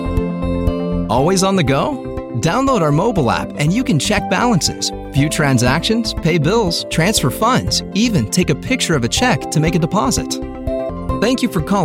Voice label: american voice telephone